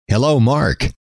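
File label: "hello", male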